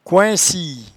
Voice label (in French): "coincie", patois jura